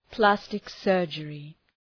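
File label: "plastic surgery", English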